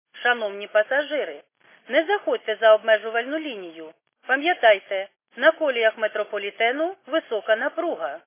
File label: khs st female, subway station